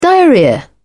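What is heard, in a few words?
"diarrhoea", British English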